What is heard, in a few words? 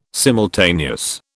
"simultaneous", British